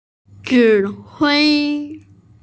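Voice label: "zhi3hui1", Mandarin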